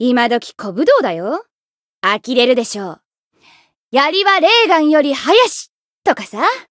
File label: Voice story jp